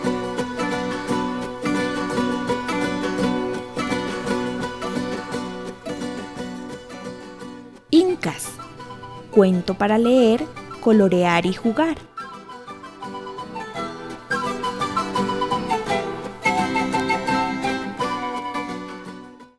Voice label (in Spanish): narracio, espanol; CUENTO